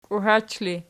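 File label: "kuhach" ergative, Archi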